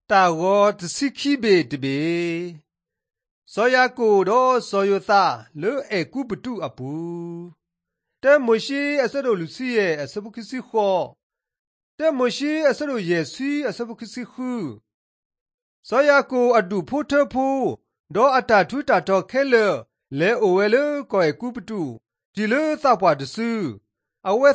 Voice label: drama, karen sgaw; mighty men of god; bible

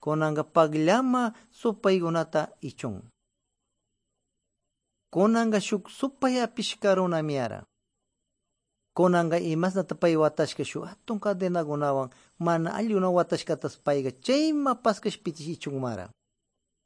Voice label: good news quichua de pastaza

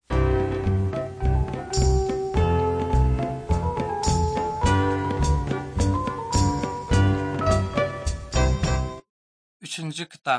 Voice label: turkmen, ot drama

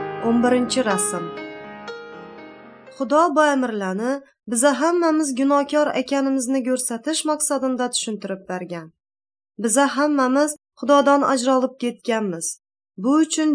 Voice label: uzbek northern kipchak; good news

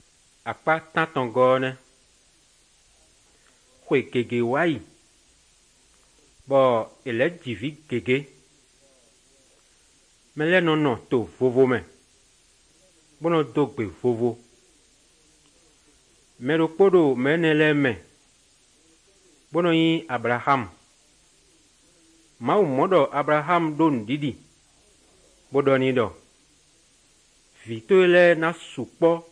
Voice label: good news, fon fongbe bible drama

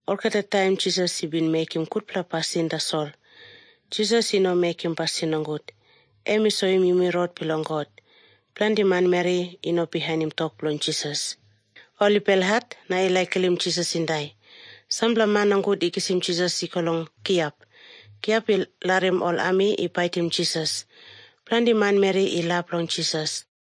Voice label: tok pisin; png nt drama; can god forgive me

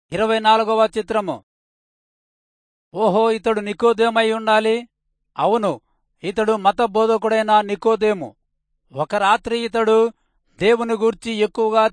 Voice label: telugu rayalseema; good news

